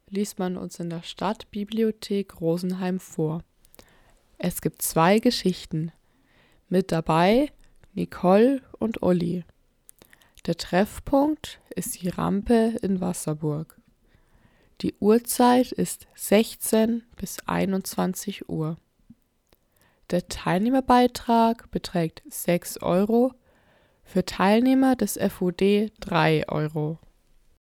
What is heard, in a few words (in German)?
Lesung leichte Sprache